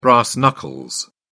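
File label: "brass knuckles", British English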